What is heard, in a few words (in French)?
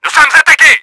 UNDER ATTACK, french; Battle Engine Aquila